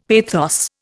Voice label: "pathos", Indian; Dictionary